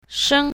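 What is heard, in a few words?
"sheng1", pinyin, south, female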